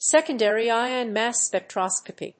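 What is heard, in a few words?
"secondary ion mass spectroscopy", English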